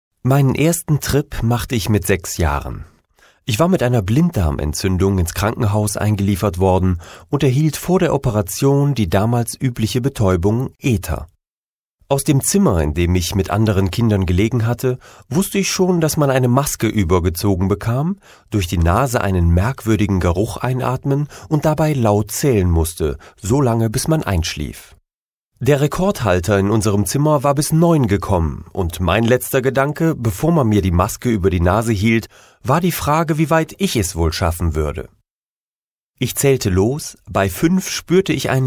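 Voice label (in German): Hörbuch Auszug; eleusis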